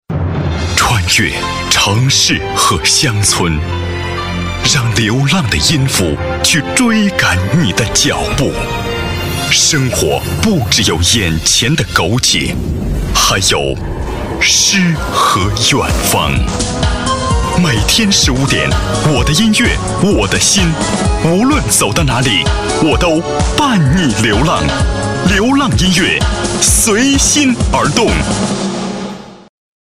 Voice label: 男 片花 音乐台标

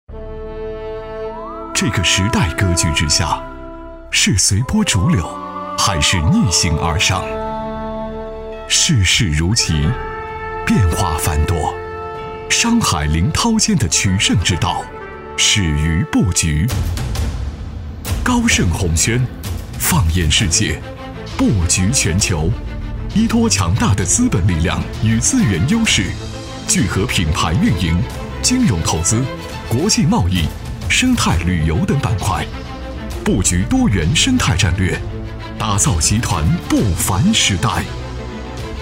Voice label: 男 专题 形象（品质 大气）; 高盛鸿轩

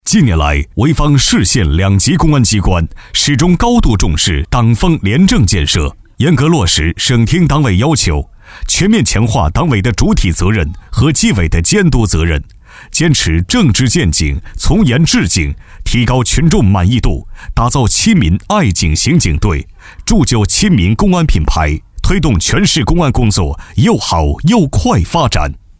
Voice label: 男 专题

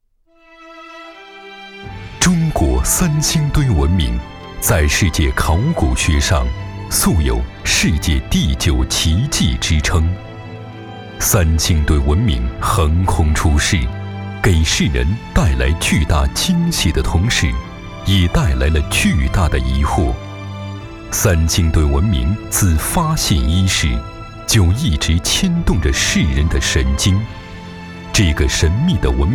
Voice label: 男, 纪录片; 三星堆

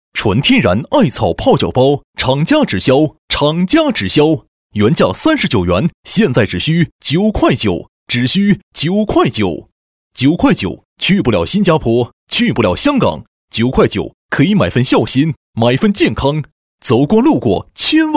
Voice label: 男 促销; 9.9艾草泡

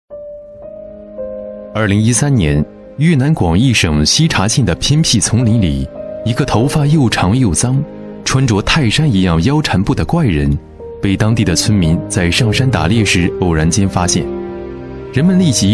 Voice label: 男93-纪录片-越南泰山

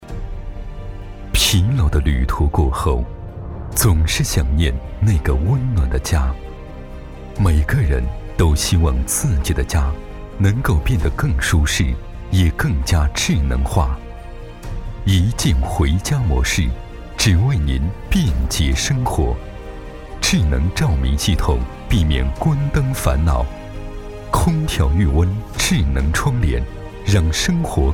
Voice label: 男, 广告; 俊逸中心

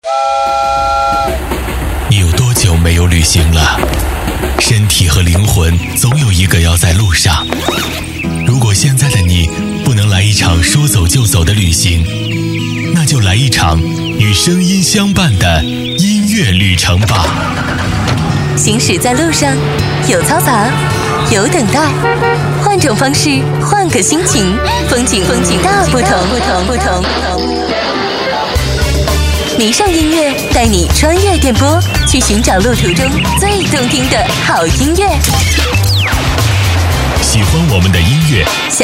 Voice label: 男 片花 汽车音乐片头

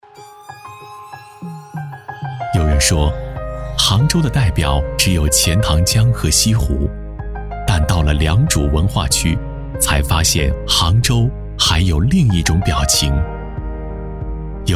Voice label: 男 专题; 国税局专题

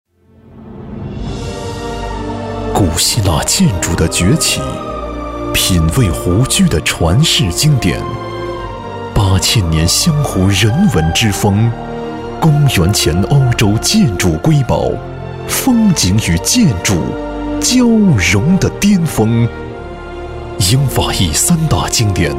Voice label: 男 广告; 湘湖壹号房产

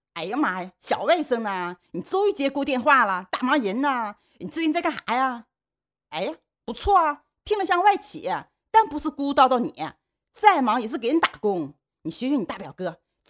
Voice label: 模仿 东北话

